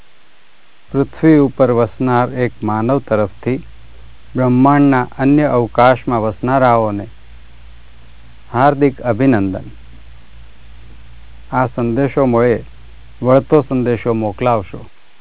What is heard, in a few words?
gujarati; Golden Record